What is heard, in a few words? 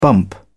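"bump", British English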